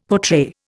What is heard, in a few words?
"portray", Indian; Dictionary